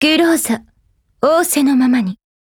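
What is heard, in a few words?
formation Japanese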